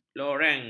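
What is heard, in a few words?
"loreng", Malay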